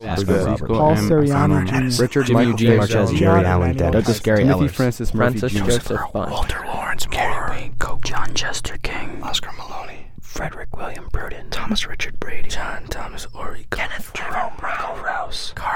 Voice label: whispers; Vietnam